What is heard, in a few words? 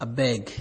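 "abeg", Nigerian Pidgin, male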